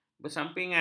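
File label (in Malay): "bersampingan", Malay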